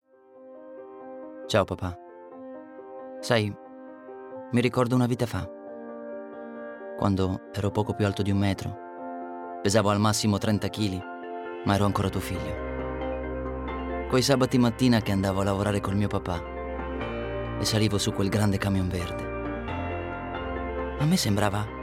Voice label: Italian Narrative